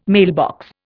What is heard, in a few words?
"mailbox", Indian